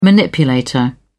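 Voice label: "manipulator", British English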